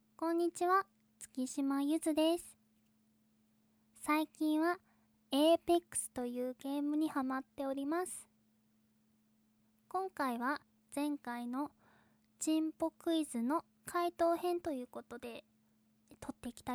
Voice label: フリートーク; ち○ぽネタ

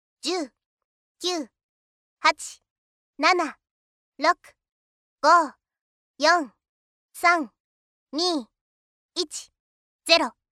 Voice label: 10から0へカウント; ポレンちゃん

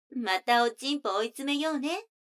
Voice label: 女の子台詞

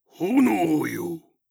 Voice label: "炎よ！", 戦闘ボイス 中年 低音

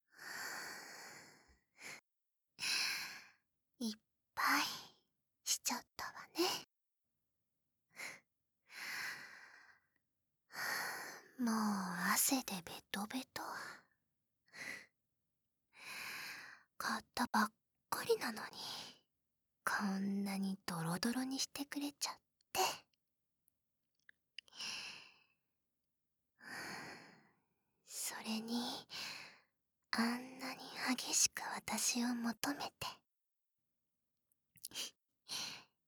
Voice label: バイノーラル; 2人の約束

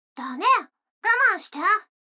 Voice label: 女の子 台詞, ASMR

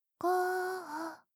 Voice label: ゆっくりカウント

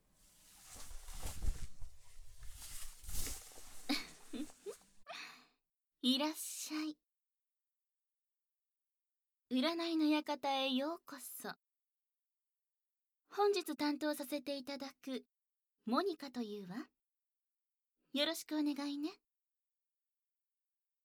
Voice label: お香とオイルで耳から催淫, バイノーラルマイク

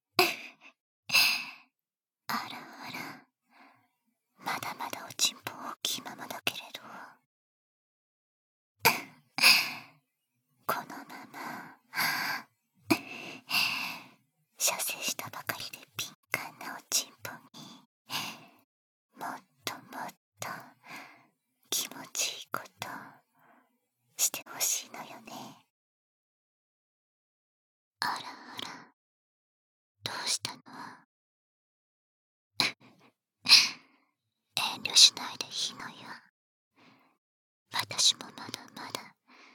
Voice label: 囁き, ASMR; 囁き手コキ連続射精差分（簡易モノラル編集 効果音なし）

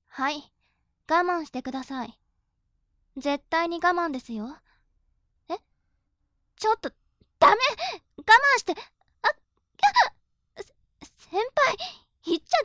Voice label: 調教中音声, ASMR

